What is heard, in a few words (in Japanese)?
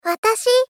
Moe Watashi; Rei Yumesaki